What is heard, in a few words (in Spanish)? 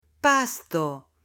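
"pasto", Spanish, female; OBJETOS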